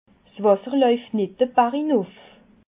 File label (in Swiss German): "wasser", alsace